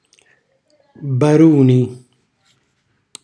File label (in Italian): "baruni", Calabrese; primo figlio maschio